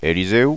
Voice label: "eliseu", Occitan

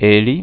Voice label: "eli", Occitan

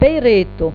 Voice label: "peireta", Occitan

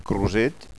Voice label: "croset", Occitan

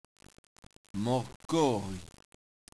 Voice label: "marcori", Occitan

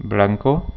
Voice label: "blanca", Occitan

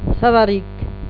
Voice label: "savaric", Occitan

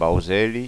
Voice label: "bauseli", Occitan

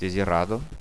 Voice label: "desirada", Occitan

